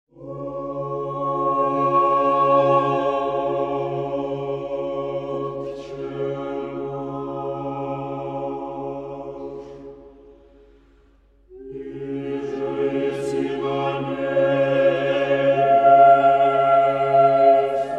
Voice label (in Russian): russian otche nash blagovest; prayer